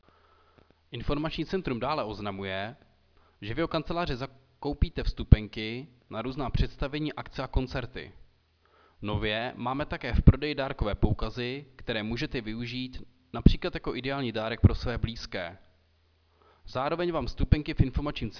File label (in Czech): pravidelné hlášení městského rozhlasu